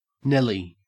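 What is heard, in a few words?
"nelly", English, Australian accent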